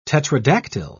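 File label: "tetradactyl", English, British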